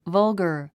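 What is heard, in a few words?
"vulgar", English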